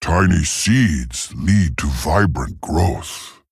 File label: Male Tauren; Living Seed